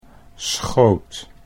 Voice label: "schoot", Dutch

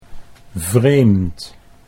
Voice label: "vreemd", Dutch

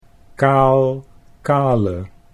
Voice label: "kaal kale", Dutch

